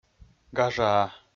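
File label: "гажаа", Komi, male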